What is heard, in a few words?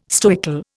"stoical", Indian